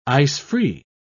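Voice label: "ice-free", British English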